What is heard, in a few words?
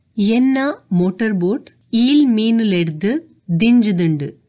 hovercraft, tulu; ಎನ್ನ ಮೋಟಾರ್ ಬೋಟ್ ಈಲ್ ಮೀನುಲೆಡ್ದ್ ದಿನ್ಜಿದುಂಡು; Enna mōṭār bōṭ īl mīnuleḍd dinjiduṇḍu; This phrase is used in a sketch about a badly translated English-Hungarian phrasebook